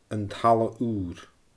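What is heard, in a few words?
"An Talla Ùr", Scottish Gaelic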